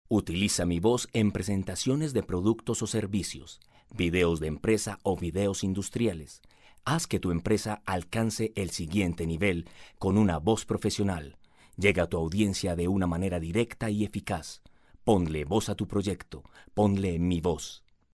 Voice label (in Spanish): Voz Masculina